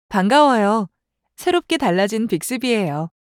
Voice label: onboarding provision greeting ko kr; Samsung Galaxy S10; Bixby